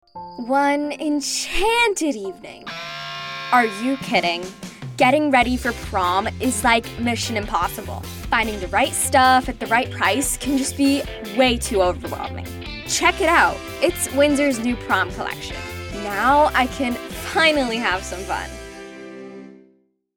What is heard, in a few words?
Voice Over